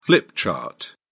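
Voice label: "flip chart", British English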